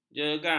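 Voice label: "jegang", Malay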